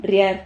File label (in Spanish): "rrihar", silabas